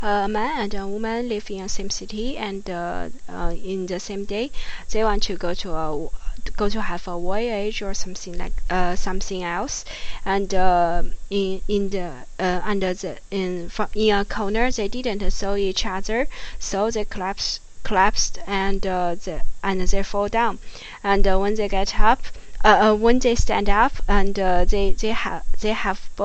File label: chinese low